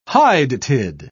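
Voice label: "hydatid", British English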